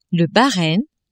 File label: "Bahrein", French